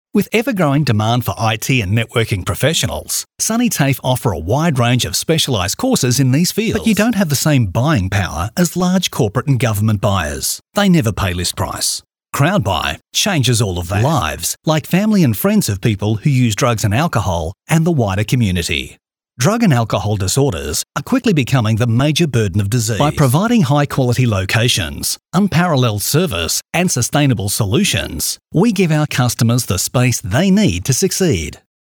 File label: Natural